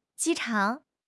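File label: "ji1 chang3", Chinese; HSK; HSK2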